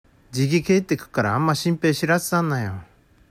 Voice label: 「じぎ」, 旭弁 銚子弁; ※時間や距離が近いこと

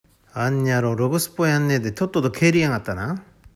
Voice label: 「ろぐすっぽ」, 旭弁 銚子弁; 不十分; 「あんにゃろうろぐすっぽやんねーでとっとどけーりやがったな？」; （訳）あの野郎ちゃんとやらずにとっとと帰りやがったな？

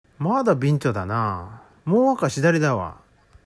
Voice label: 「びんちょ」, 旭弁 銚子弁; 曲がっている; ズレている; 「まーだびんちょだなぁ、もうわーかしだりだわ」; （訳）まだズレているなぁ、もう少し左だね

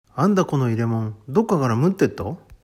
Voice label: 「むってる」, 旭弁 銚子弁; 漏れてる; 「あんだこの入れもん、どっかがらむってっと」; （訳）なにこの容器、どこからか漏れてるよ

